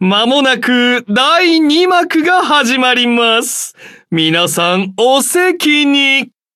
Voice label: level up, ja